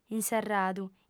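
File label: "inserradu", Sardinian, female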